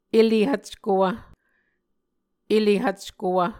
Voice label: "ele hvckowv", Muscogee

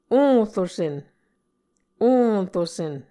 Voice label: "orusen", Muscogee, nasalized vowels; Mvskoke Words with Nasalized Vowels

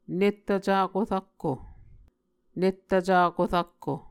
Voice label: "nettv cako rakko", Muscogee

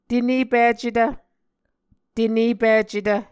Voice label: "tenepicetv", Muscogee

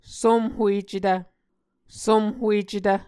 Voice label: "somhuecetv", Muscogee

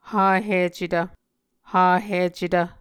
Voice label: "hahicetv", muscogee

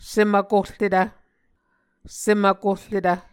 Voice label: "sem vkorretv", Muscogee

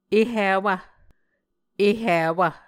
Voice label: "ehiwv", muscogee

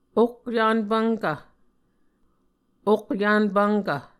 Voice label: "okyvn pvnkv", Muscogee

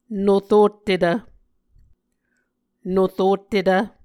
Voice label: "norottetv", Muscogee

